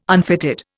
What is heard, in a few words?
"unfitted", Indian